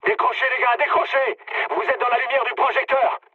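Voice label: searchlite, mood medium, French; War Thunder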